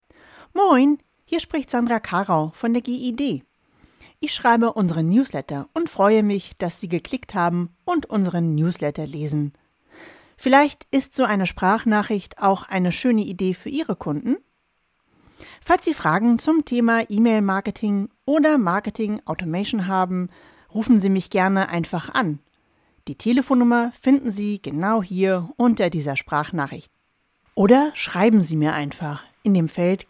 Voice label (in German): Sprachnachricht; gid GmbH; Sprachnachricht im Newsletter